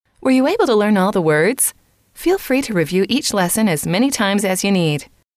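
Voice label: were you able to learn all the words feel free, female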